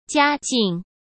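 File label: "家境", Mandarin Chinese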